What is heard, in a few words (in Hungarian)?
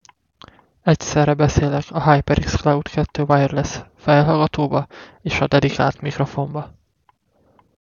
mikrofon hyperx jo; fifine ampligame am6 mikrofon; fifine ampligame am6 mikrofon teszt